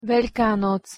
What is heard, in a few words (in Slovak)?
pronunciation sk velka noc